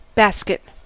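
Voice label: "basket", American English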